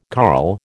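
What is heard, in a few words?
"carl", American English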